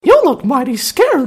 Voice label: gassy mexican; taunts; hunters